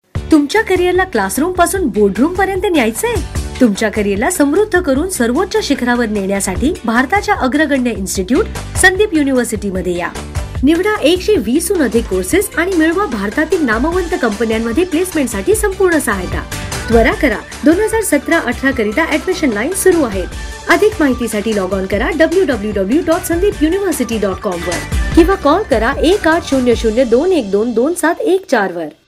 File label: Marathi IVR